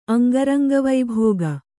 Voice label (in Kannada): "ಅಂಗರಂಗವೈಭೋಗ", Kannada